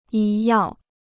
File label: "医药", Chinese